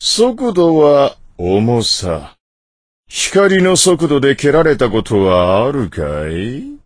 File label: voice, dubbing